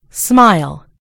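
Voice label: "smile", American English; gcompris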